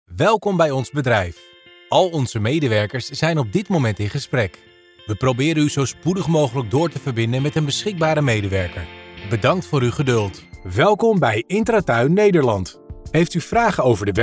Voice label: IVR voicereel